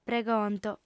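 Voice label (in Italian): "pregonto", lingua sarda, fem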